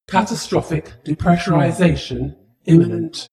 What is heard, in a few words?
female status report